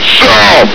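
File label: "south!", male; hl2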